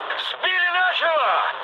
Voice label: aircraft destroyed, mood med, speech ru; War Thunder

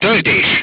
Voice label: voice message load, crew tanks hungarian

